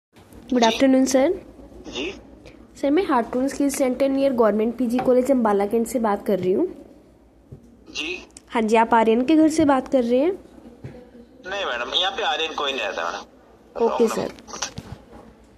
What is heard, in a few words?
call recording